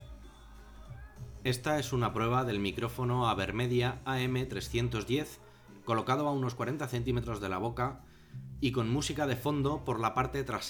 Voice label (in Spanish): Prueba música de fondo